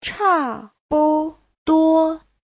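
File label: "chàbuduō", Mandarin Chinese; Giáo trình Hán ngữ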